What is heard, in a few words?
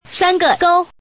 Woman; ningxia